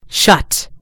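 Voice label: "shut", British English; gcompris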